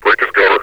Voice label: break his cover, metropolice vo; Half Life Alyx